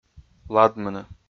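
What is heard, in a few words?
"ладмыны", Komi, male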